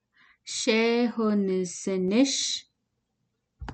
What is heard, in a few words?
Do you know me, Navajo